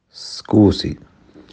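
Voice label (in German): "scusi", italienisch; Entschuldigung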